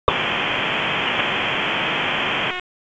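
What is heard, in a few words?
EMS DISP, radio; wake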